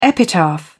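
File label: "epitaph", British English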